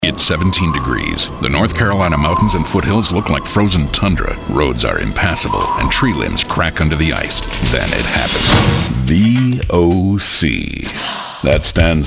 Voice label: Commercial VOs